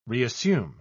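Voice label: "reassume", British English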